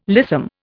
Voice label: "lissom", Indian